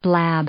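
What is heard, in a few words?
"blab", English; verb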